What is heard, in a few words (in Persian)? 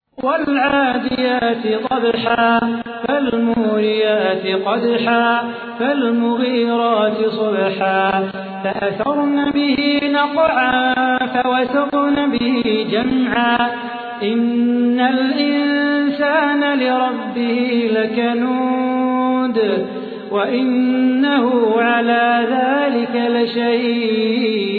ترتیل سوره عادیات; صلاح بوخاطر